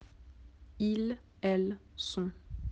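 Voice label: "être", French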